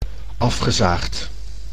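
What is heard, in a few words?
"afgezaagd", Dutch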